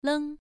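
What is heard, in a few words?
"leng", Mandarin Chinese syllable, first tone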